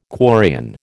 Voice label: "quarrian", American

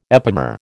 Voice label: "epimer", American

